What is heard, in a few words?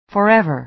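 "forever", American English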